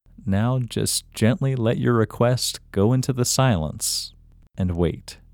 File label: Locate IN Male